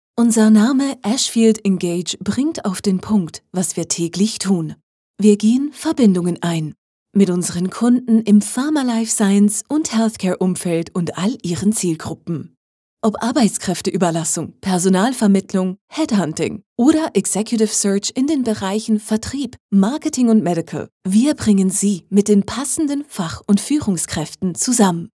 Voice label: german switzerland corporate videos

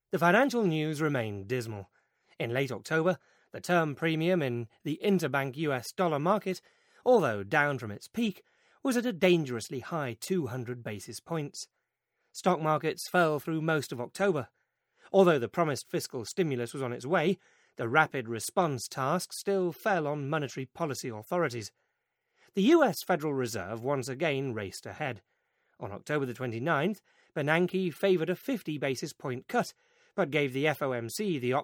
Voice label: English British political ads

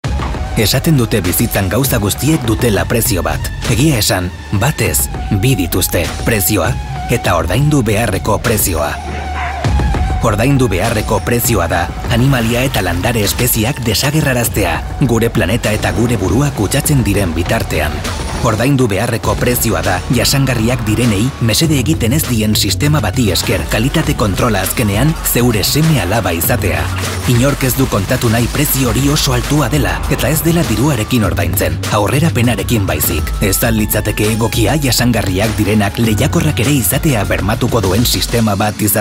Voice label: basque commercial

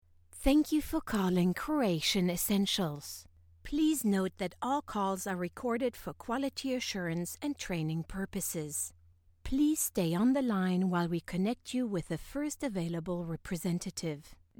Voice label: english commercial

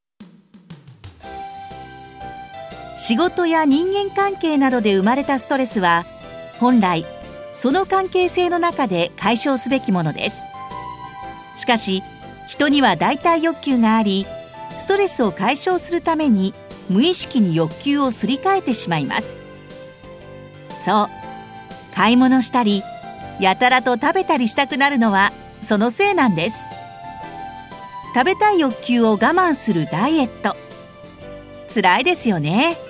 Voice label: japanese commercial

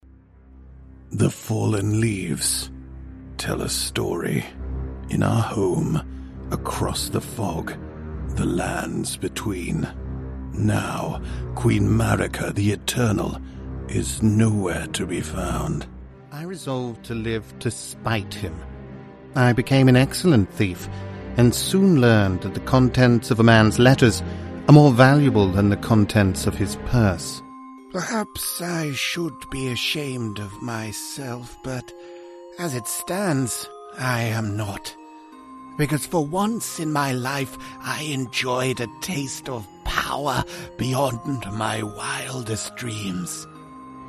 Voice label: english british video games

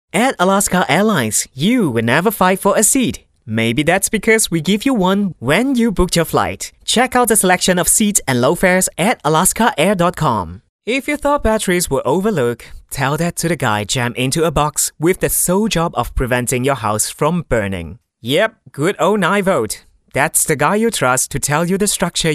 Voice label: english commercial

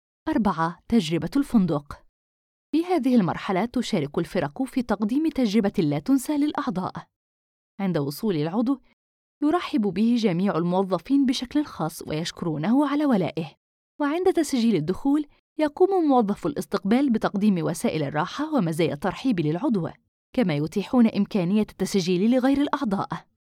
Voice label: arabic audiobooks

